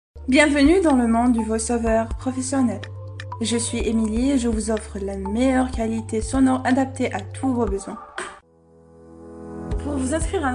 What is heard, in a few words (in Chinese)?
法语女声